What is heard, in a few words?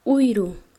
Chanka, quechua; circular